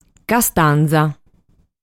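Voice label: "Castanza", Sardinian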